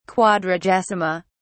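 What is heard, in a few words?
"quadragesima", English, British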